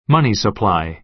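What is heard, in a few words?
"money supply", American English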